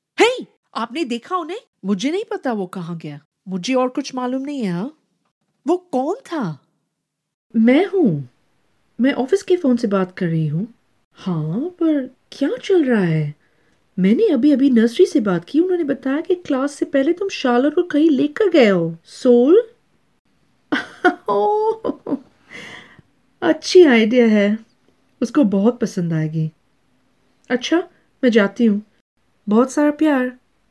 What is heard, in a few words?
Hindi